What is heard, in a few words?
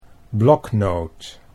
"bloknoot", Dutch